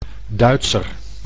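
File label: "Duitser", Dutch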